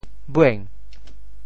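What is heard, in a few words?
"bueng2", Teochew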